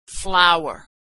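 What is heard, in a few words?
"flour", American English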